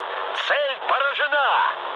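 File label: Russian bomb success mood med, speech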